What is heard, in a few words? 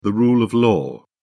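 "rule of law", English, British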